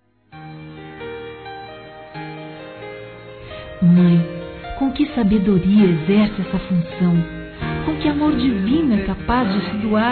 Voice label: Mãe Feminino; Aniversários; Aniversários diversos

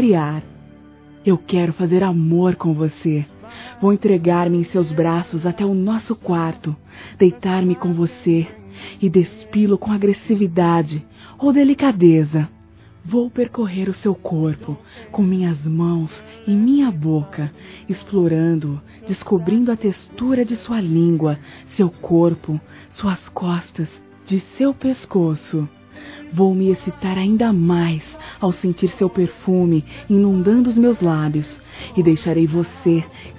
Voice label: ANIV CASAMENTO (F), fem; Telemensagens; Sensuais

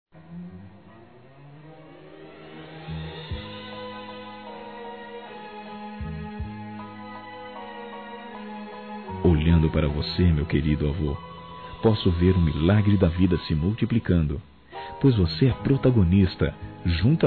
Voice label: Aniversário Avô Masculino; Aniversários; Aniv Familiar

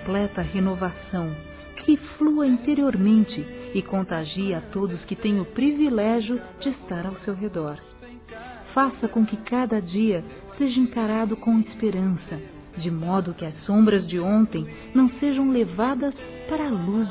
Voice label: ANIV. DO AMIGO (F), Telemensagens, Gospel, fem; Telemensagens 2017

